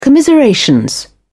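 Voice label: "commiseration", English, British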